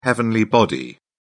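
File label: "heavenly body", British English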